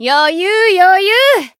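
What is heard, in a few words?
growth, voice, Japanese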